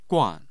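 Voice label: "guan", Mandarin Chinese, tone 4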